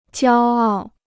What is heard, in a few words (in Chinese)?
"骄傲", Chinese